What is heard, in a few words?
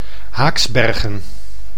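"Haaksbergen", Dutch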